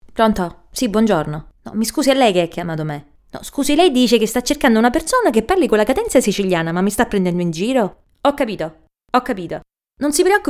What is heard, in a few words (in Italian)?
siciliano